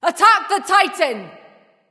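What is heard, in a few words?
vox attack male; smite; artio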